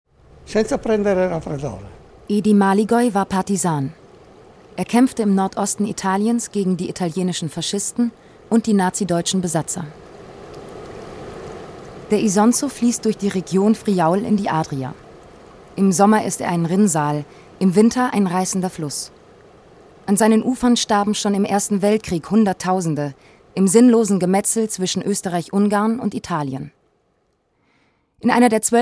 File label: voice over